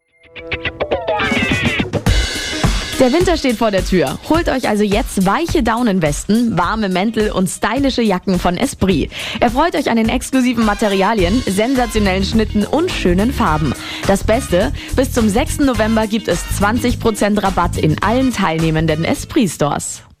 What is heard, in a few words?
spot; esprit; niedersachsen